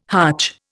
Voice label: "hutch", Indian